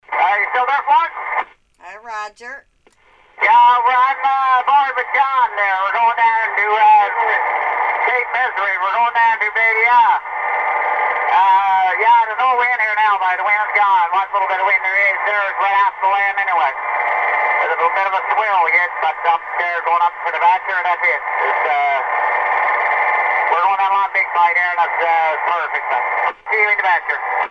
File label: walkie talkie